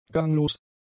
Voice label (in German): "ganglos", German; adjectives